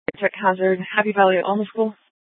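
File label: Police Department North Dispatch, scanner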